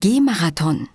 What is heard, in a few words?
german walkathon; samsung YP-U5; Audio User Interface